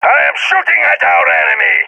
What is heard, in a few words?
balkan attacking; vo; balkan epic